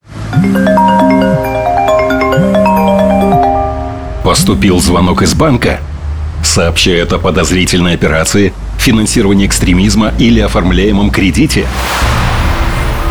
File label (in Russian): радио; СК Защитите себя завершите разговор; информирование населения о преступл